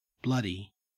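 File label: "bloody", English, Australian accent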